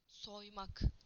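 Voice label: "to peel", Turkish; PictureDictionary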